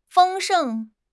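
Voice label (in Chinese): "丰盛", Mandarin Chinese; HSK6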